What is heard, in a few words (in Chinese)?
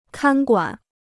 "看管", Chinese